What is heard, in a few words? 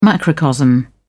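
"macrocosm", British English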